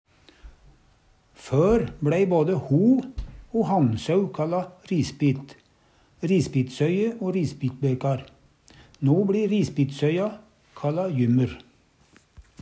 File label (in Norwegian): "risbit", Numedalsmål